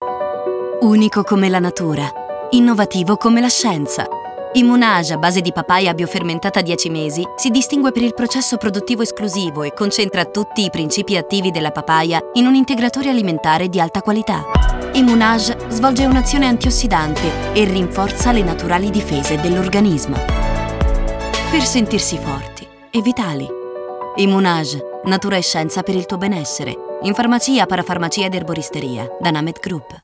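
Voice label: advertising Italian, ITALIAN FEMALE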